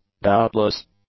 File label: "doubtless", English; adjectives